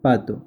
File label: Duck spanish